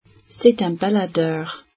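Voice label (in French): "baladeur", French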